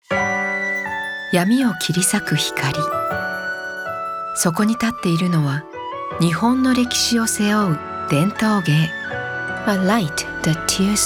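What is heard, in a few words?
Japanese female commercial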